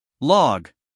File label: "log", US English, male